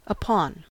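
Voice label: "upon", American English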